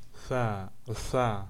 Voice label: Labialized voiceless dental fricative